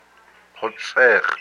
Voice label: "his belly button", hupa